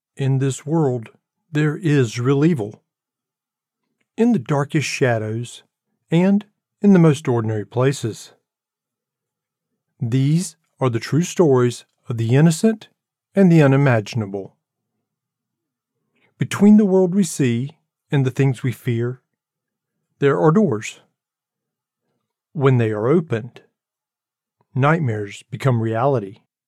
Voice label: E Learning; Hauntings